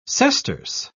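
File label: "sesterce", British English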